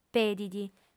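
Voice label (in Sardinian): "pedit", female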